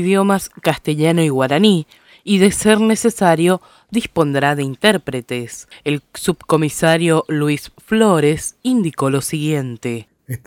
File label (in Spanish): LA POLICIA NOTIFICA EN LENGUA GUARANI